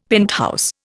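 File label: "penthouse", Indian English; Dictionary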